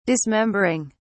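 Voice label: "dismembering", English, British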